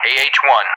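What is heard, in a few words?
"AH-1", English; DCS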